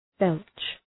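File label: "belch", English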